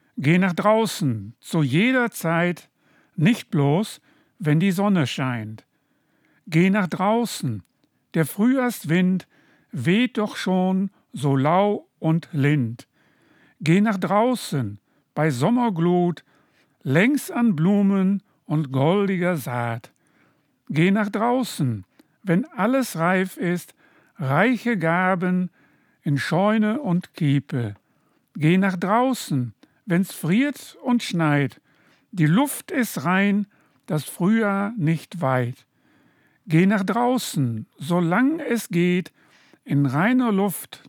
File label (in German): Hochdeutsch; Ludgeruspaettken; Nottuln; Plattdeutscher Weg